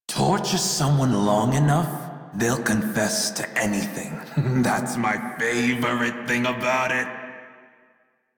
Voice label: Crew Idle Male en; Railjack Crew; Red Veil

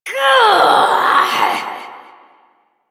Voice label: Frustration; Warframe; Entrati Doppelganger; Evil Twin

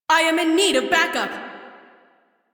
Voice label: Crew Near Death, Female; Railjack Crew; Cephalon Suda

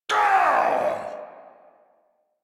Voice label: angry, en; Warframe; Tyl Regor Boss; Efforts

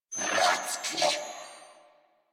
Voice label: Surprised, Female; en; Warframe; New War; Narmer Grineer

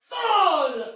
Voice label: Attack Normal Soldier Female, Battle Barks; Sinecure

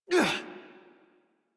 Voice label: Horse Mount Adult; Warframe; Duviri

